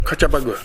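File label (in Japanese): "kachabagu", 南山形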